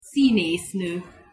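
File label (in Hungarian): "szineszno", Hungarian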